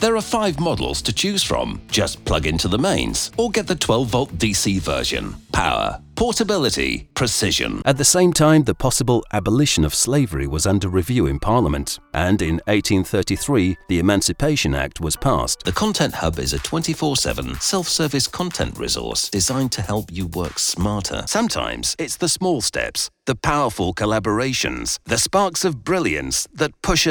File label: Corporate Narration